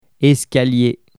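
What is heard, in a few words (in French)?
"escalier", French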